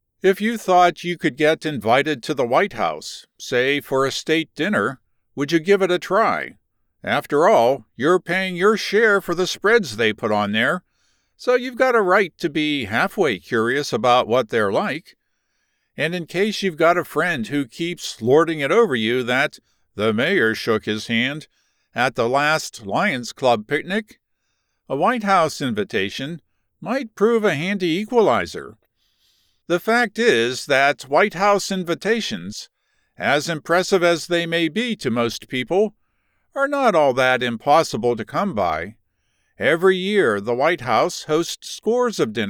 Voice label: Conversational explainer; e-learning